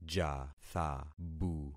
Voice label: "jathabu", concat syllables; Affixing experiment

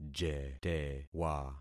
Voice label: "jetewa", concat syllables; Affixing experiment

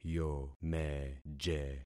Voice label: "yomeje", concat syllables; Affixing experiment

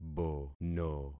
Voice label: "bono", concat syllables; Affixing experiment

